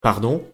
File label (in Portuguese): pronuncia frances desculpe